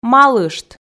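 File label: "малышт", Komi, female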